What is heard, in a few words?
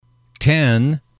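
"ten", English, male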